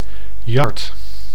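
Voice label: "yard", Dutch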